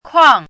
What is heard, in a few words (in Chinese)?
"kuang4", pinyin